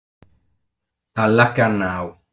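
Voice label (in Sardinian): "Allacanau", Sardinian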